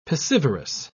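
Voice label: "piscivorous", British English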